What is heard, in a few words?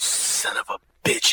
son of a bitch male whisper; Voice